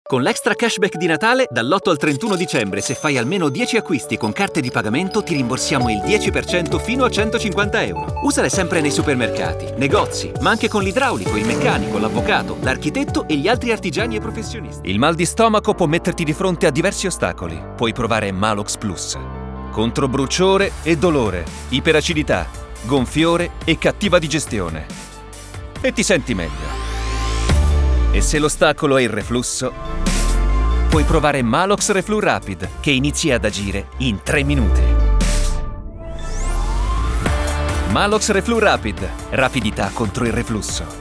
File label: Authoritative Clean, Male Voices, ITALIAN VOICES